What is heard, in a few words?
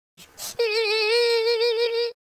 scared, Grandpa speech